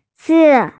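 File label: "zi4", Mandarin Chinese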